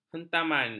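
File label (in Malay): "hentaman", Malay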